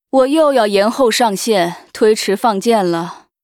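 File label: Archer pissed, Chinese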